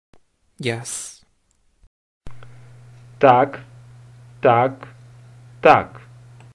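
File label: "Yes", Ukrainian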